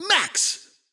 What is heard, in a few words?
intense